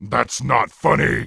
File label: Orc Male Guard NPC Pissed